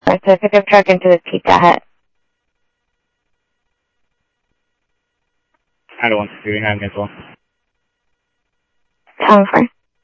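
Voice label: Sheriff East County, scanner audio trunk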